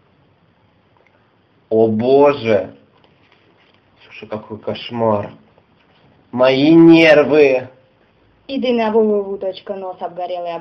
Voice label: Reklama Polskogo Tur-Operatora